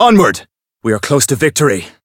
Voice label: Voice variable chant; claude